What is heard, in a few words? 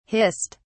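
"hissed", British English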